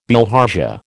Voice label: "bilharzia", American

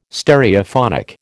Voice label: "Stereophonic", American

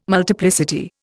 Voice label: "multiplicity", Indian

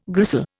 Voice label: "gristle", Indian

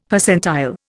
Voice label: "Percentile", Indian; Dictionary

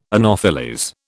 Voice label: "anopheles", British

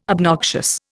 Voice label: "obnoxious", Indian

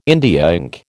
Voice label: "India ink", American

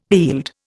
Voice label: "heald", Indian

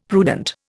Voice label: "prudent", Indian; Dictionary

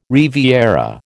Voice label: "riviera", American

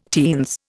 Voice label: "teens", Indian

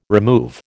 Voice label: "remove", American

